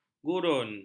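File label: "gurun", Malay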